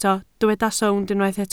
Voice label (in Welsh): "sownd" Welsh